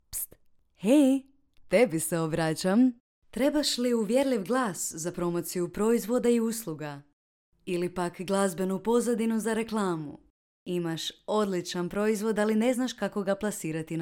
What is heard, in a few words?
commercial, croatian